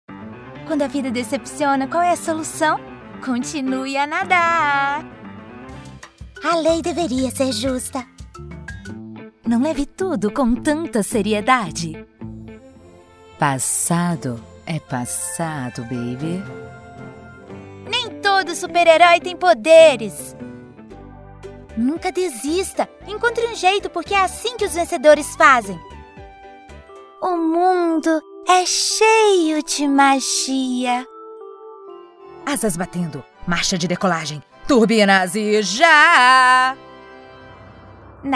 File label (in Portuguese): VOZ CARICATA